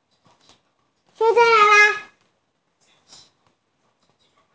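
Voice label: "dong1", Mandarin Chinese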